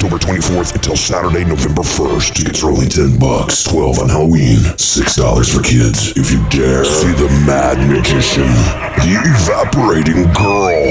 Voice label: haunt radio promo